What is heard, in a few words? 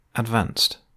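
"advanced", British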